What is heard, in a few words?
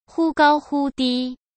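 "忽高忽低", Mandarin Chinese; hsk; chinese-flashcards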